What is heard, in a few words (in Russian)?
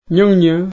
"весна", Nanai